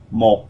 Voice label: "one" vietnamese

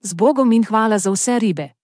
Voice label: so long, Slovenian

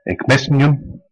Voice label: i miss you, West Flemish

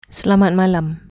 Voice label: goodnight, malay

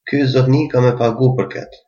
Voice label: pay, albanian gheg

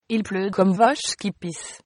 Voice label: rain, fr

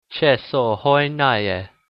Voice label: "toilet", Hakka

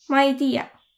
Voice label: i dont know, voro; Ma ei tiiäq

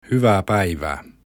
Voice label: hello, finnish

